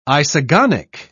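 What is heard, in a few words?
"isogonic", British English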